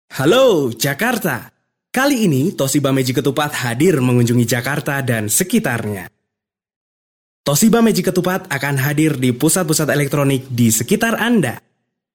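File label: Indonesian, male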